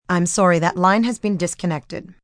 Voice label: Line Disconnect, Telephone operator, female, recorded; VoiceBox